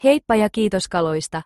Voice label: so long, finnish